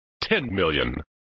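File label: "Ten Million", male voice; Worf; flipper; sttng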